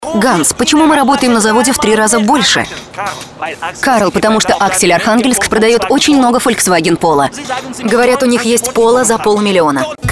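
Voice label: Russian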